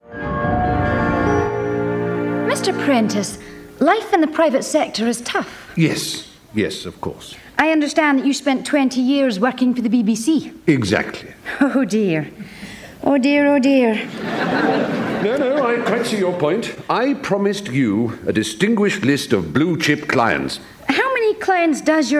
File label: character